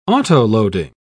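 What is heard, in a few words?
"autoloading", English, British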